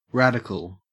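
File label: "radical", Australian English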